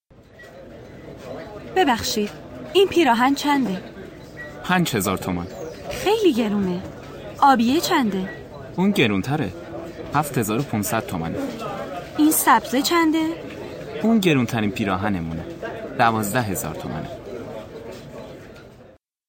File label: Dialogue lesson Farsi